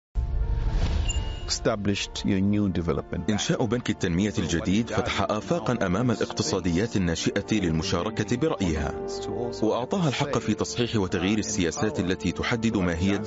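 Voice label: Arabic Documentary; voice demos; GoLocalise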